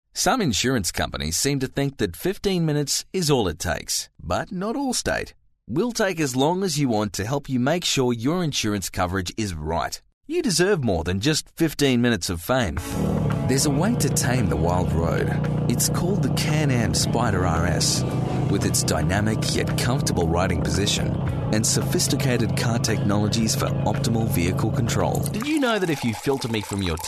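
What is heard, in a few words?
English Australian, male, Commercial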